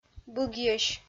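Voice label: "быгйӧсь", Komi-Zyrian, female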